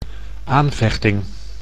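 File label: "aanvechting", Dutch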